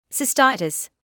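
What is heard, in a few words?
"cystitis" English, British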